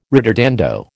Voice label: "ritardando", American